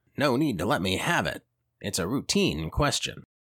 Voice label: Noir-influenced detective with sharp wit, world-weary charm, and investigative gravitas, Audiobook Hero; Wakefield